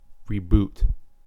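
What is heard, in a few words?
"reboot", US English; pronunciations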